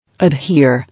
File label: "Adhere", English